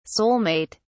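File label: "soul-mate", British English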